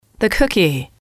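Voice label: "the cookie", English, female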